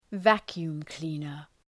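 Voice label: "vacuum cleaner", English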